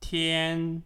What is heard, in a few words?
"天", Chinese